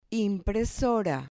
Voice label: "impresora", Spanish

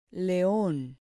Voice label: "leon", Spanish

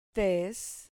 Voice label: "tes", Spanish syllable

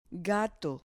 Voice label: "gato", Spanish

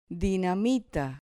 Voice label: "dinamita", Spanish

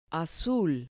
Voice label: "azul", Spanish

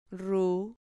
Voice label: "rru", Spanish syllable

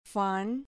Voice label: "fan", Spanish

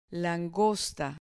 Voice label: "langosta", Spanish

